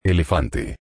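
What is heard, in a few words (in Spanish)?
"elefante", Spanish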